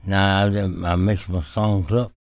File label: talk, spoken, blues soul